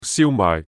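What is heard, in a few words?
"燒賣", Cantonese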